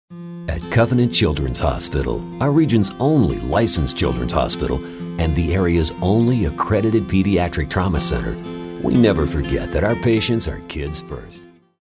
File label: caring